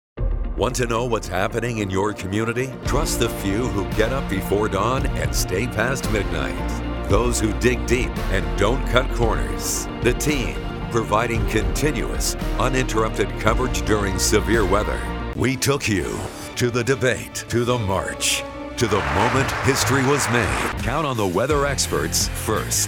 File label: tv affilate men local network television; tv affiliate